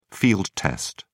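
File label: "field-test", English, British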